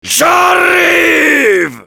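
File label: Heavy battlecry, French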